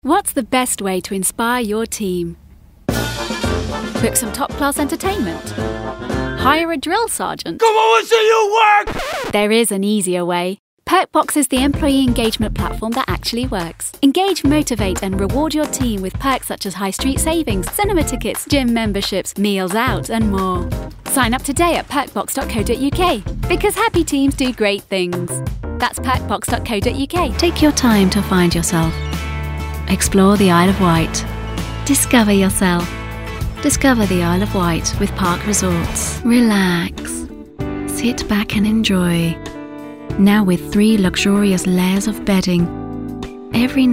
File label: English commercial